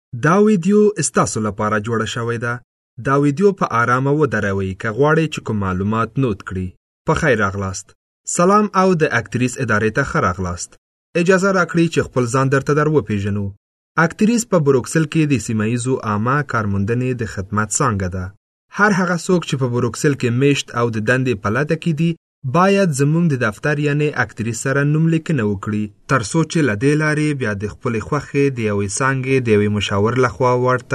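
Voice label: Pashto, male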